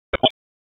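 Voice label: Police Downtown District Dispatch, p25; Raleigh; wake p25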